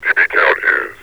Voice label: db count is, metropolice vo; Half Life Alyx

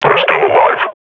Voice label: combat idle, grunt; Half Life Model Pack

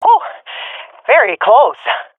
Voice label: close call, swat fem; vo; Counter Strike Model Pack; CS:GO

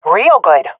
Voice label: cheer, swat fem; vo; agents; Source Model Packs; Counter Strike Model Pack; CS2

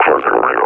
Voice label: reconnoiter start, officer; Half Life Alyx; Half Life Model Pack

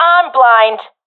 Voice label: blinded, professional fem; vo; Counter Strike Model Pack; CS:GO

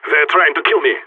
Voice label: radio taking fire, vo agents; phoenix

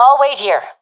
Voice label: waiting here, professional fem; vo; Counter Strike Model Pack; CS:GO

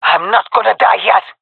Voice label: scared, jungle fem epic; agents

